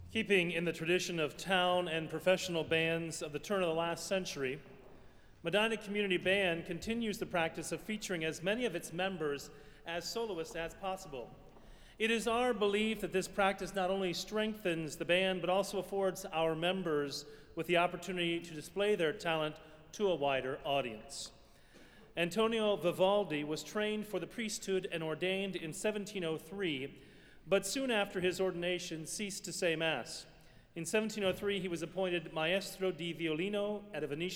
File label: Narration